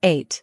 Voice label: "eight", voice